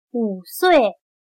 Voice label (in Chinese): "五岁", chino